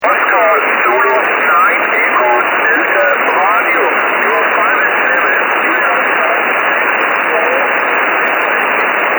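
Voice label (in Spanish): esporádica, estación Danesa; OZ9EDR/P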